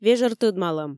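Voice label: "вежӧр тӧдмалӧм", Komi, female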